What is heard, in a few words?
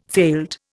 "failed", Indian